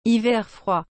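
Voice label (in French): "hiver froid", French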